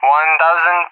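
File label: "1000", English, Russia; Numbers; DCS; Allied Flight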